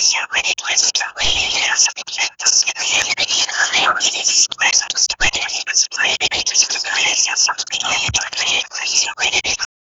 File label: unintelligeble whispers; eerie